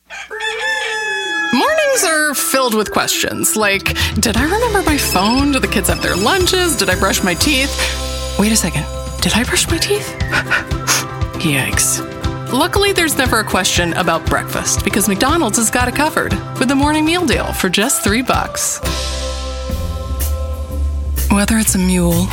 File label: Commercials